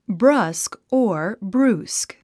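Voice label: "brusque", English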